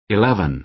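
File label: "eleven" American English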